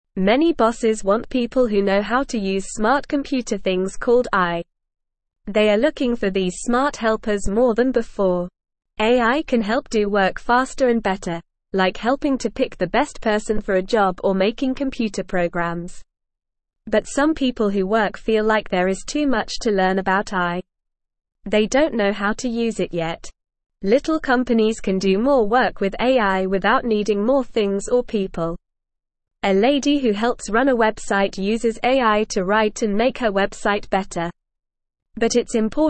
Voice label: English Newsroom Beginner NORMAL Reading; Using AI to Help with Work A Simple Guide